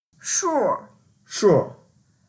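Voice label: "shur4", Mandarin Chinese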